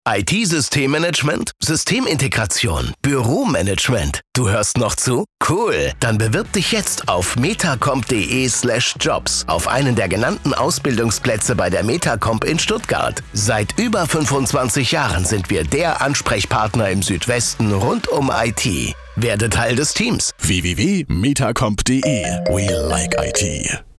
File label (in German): Recruiting Spot Azubis Sommer, Radiospot; Metacomp; 107.7